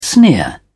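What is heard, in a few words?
"sneer", British English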